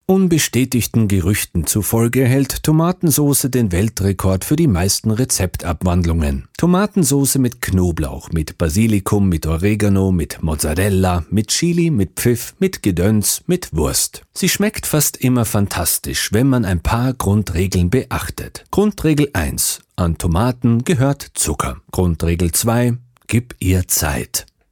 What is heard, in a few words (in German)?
Podcast Einspieler; Tomatensauce